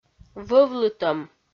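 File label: "вӧвлытӧм", Komi, female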